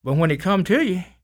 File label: SPOKEN, Blues Soul, TALK